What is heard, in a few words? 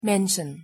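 "mention", English pronunciation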